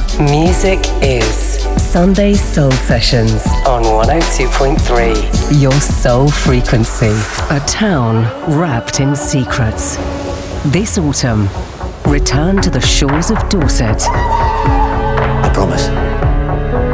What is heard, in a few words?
Radio TV Promo; One Voice Conference 2020 Nomination